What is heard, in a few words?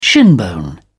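"shinbone", British English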